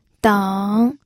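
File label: "deng", pinyin, third tone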